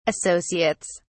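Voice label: "associates", British English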